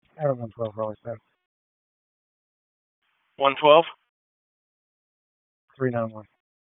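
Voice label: Raleigh Police Southwest District Dispatch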